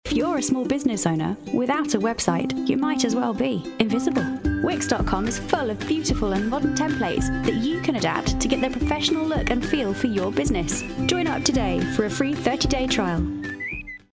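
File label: English British, female, commercial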